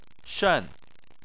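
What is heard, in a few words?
"shen" tone 4, Chinese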